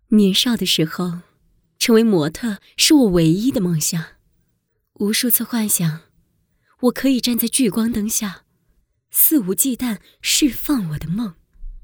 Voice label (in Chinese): 女 旁白